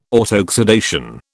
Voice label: "autoxidation", British